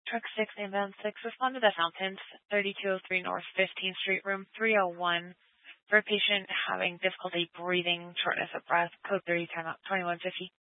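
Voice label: Fire Dispatch, scanner audio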